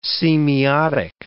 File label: "semiotic", English